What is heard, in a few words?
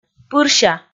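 "пырся", Komi, female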